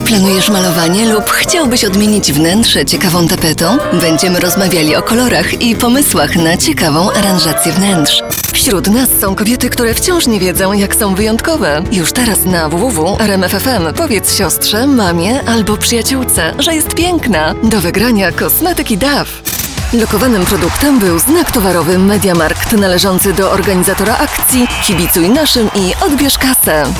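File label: voice female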